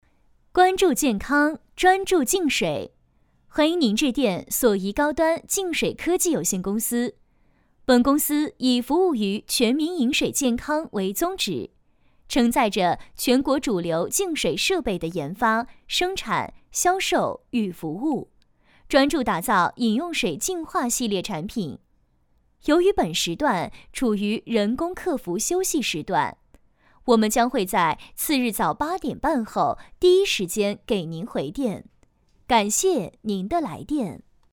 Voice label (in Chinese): 女 彩铃